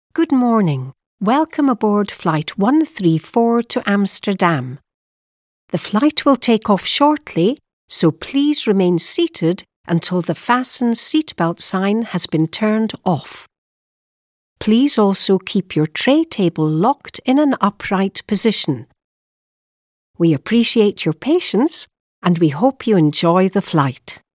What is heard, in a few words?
mature scottish system announcements